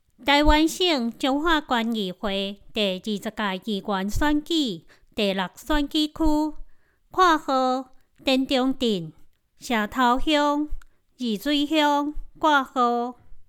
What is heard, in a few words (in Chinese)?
臺語; 彰化縣; 有聲公報; 縣議員; 第六選舉區